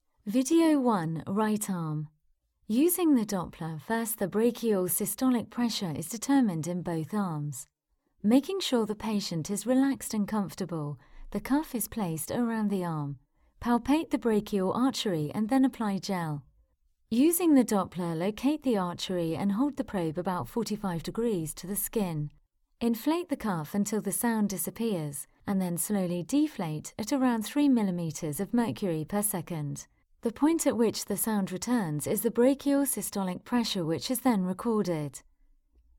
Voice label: E Learning; Huntleigh

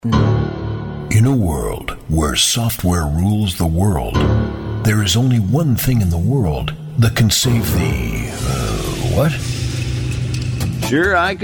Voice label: Characterizations and dialects

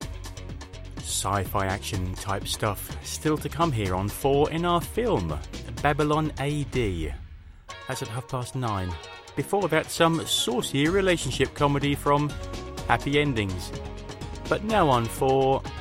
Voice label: TV Continuity mix

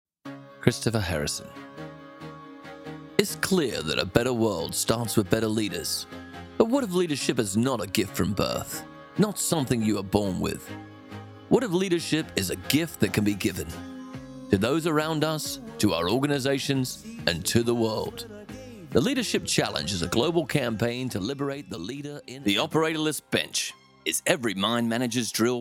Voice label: Aussie reel

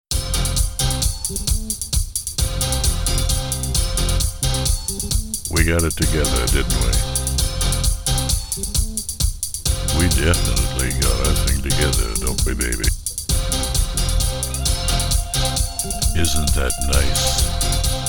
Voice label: Barry White Style

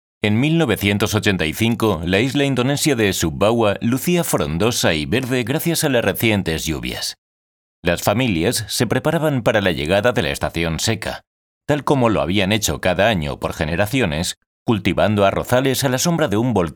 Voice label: Audiobook

